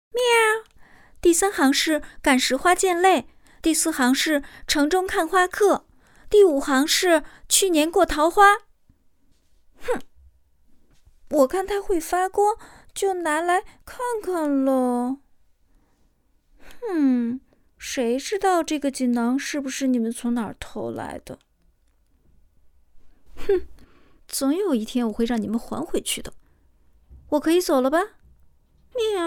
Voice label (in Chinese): 聪明 傲娇 少女